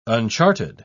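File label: "unchartered", British English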